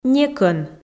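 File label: "некӧн", Komi, female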